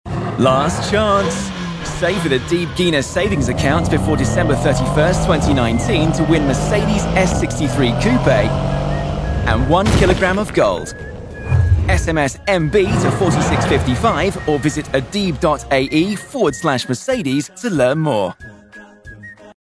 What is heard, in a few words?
promo; Abu Dhabi Islamic Bank Facebook